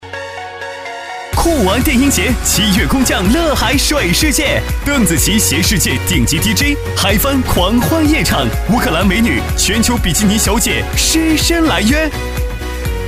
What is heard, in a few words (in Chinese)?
男国 阳光激情广告